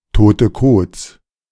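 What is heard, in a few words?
"tote Codes", German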